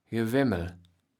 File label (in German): "Gewemmel", Platt